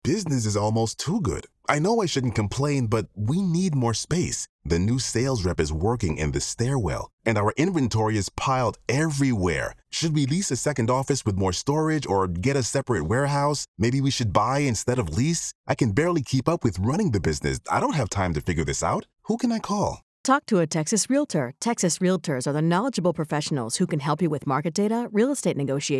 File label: Commercial